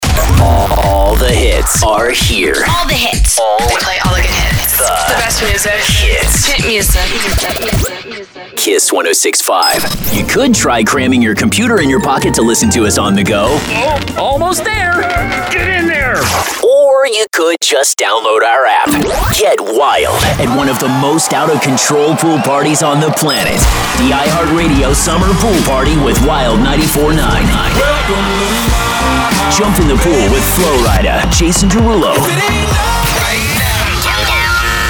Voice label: radio top40, radio imaging